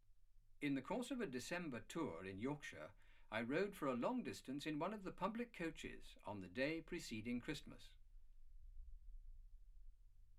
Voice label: male speech english